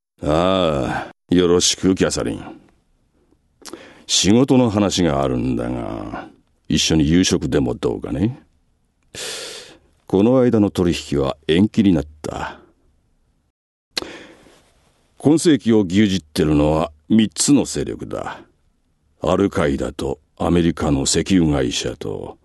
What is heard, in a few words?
male voice; セリフ 01